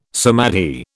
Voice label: "samadhi", British

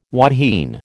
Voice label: "wahine", American

